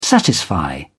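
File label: "satisfy", British English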